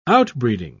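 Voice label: "outbreeding", British English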